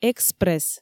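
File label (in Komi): "экспресс", Komi, female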